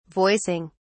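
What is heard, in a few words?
"voicing", British English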